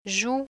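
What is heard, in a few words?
"joue", French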